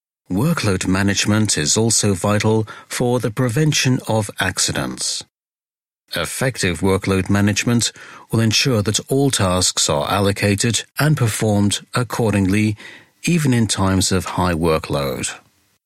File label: elearning, english voiceovers